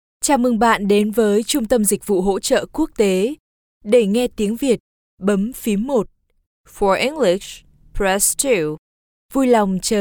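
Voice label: Vietnamese Female IVR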